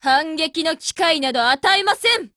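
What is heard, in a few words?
DEFENSE, Japanese; P10C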